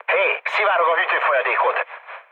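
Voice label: Hungarian damaged water mood low, speech; War Thunder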